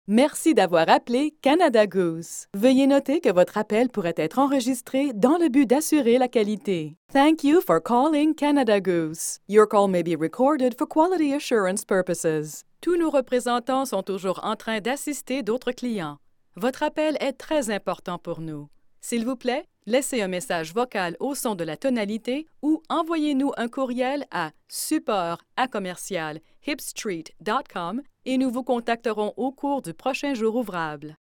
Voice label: French Canadian ivr

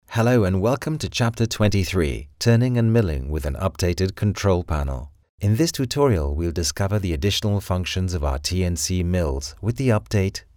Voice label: english international e-learning

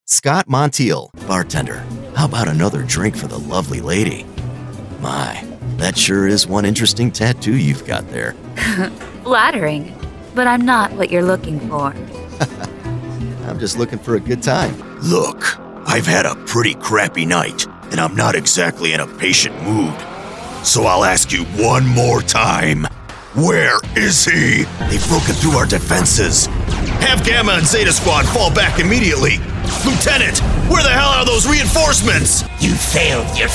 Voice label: English American animation

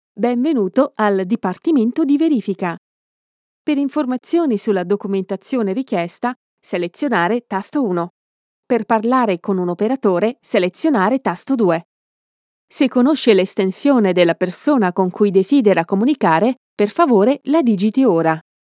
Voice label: IVR; Ez-Trader

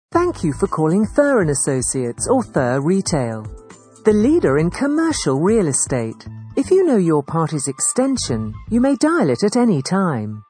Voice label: english british ivr

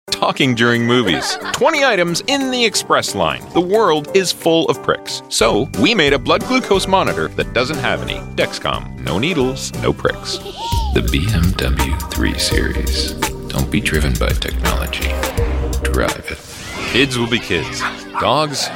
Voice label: english canadian commercial, approachable

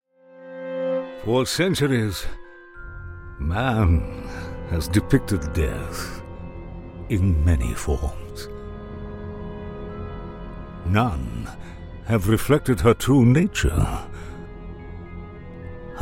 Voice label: English British movie trailers

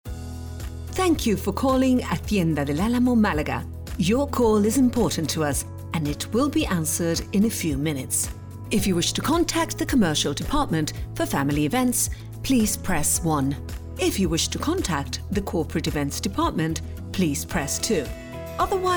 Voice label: english british ivr

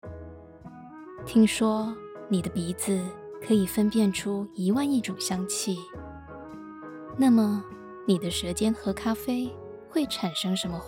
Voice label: taiwanese commercial; Taiwanese Mandarin speaker

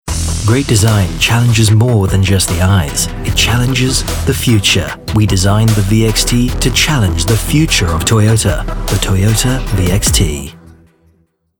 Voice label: English British automotive; voice actor

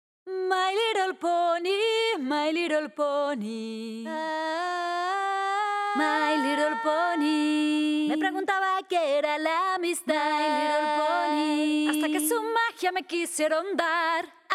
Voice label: spanish; actriz de doblaje española

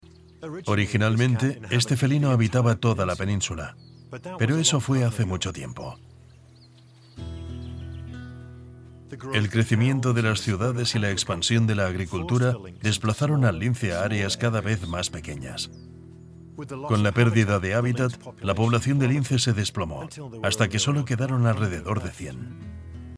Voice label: spanish documentaries

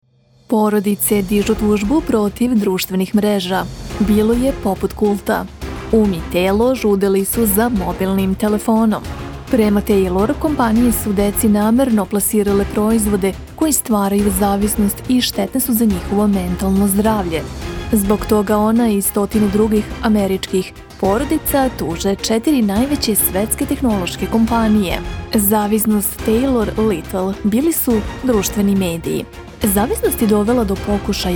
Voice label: serbian audio guides; Professional Serbian native voice-over actor from Belgrade

